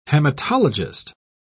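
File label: "haematologist", British English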